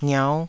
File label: "ngiau5", taiwanese syllables